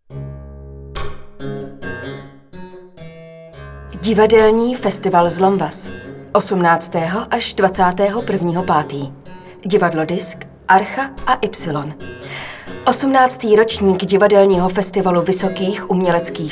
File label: Radio Spot; Zlomvaz2011Voyeur